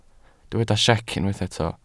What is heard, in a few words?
"siec", Welsh